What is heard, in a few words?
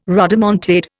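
"rodomontade", Indian English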